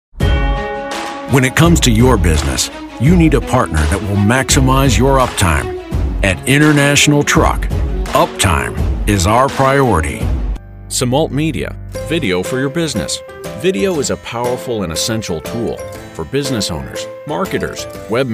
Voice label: male US

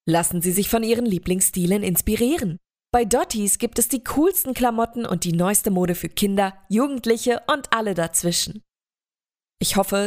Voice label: de female; lektoring

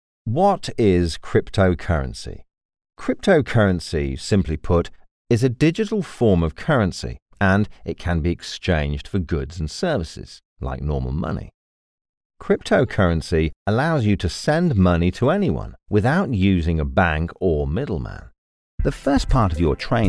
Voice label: eng male

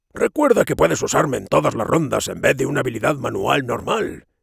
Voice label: monster es

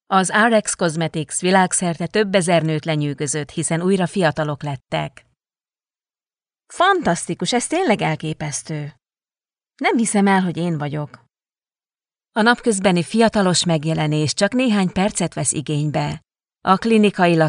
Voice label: hun female; lektoring

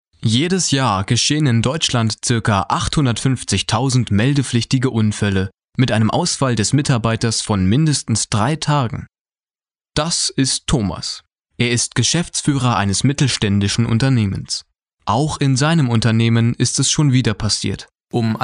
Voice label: de male; lektoring

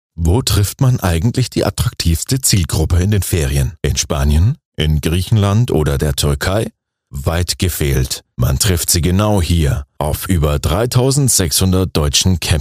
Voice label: de male lektoring

